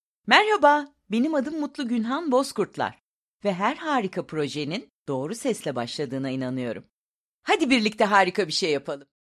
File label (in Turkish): tanıtım